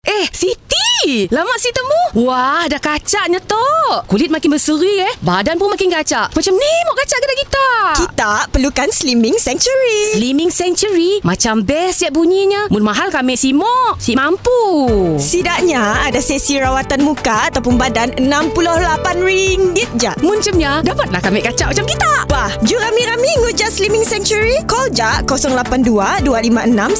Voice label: commercial malay